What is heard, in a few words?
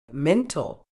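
"mental", British English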